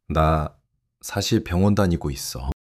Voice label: shy